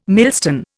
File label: "millstone", Indian